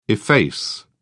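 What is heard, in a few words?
"efface", British English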